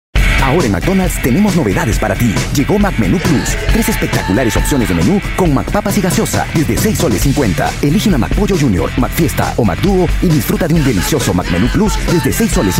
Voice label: spanish voice over male commercial